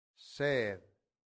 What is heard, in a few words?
"sed", Latin; santillana